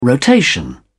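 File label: "rotation", British English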